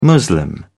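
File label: "muslim", British English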